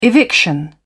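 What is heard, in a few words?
"eviction", British English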